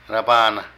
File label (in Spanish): Robar, Zapoteco de Petapa; Vocabulary